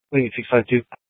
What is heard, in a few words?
Sheriff, scanner; Shrf Lakes